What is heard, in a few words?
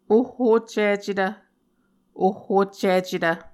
"ohhoccicetv", Muscogee; Mvskoke Words with Repeated Consonants; Martin, Jack B., and Margaret McKane Mauldin. 2023. A Dictionary of Creek/Muskogee